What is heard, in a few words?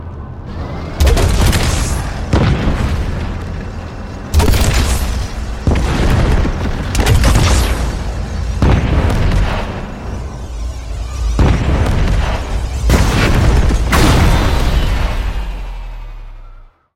Classified Transmission; Robocraft; Gyro Mortar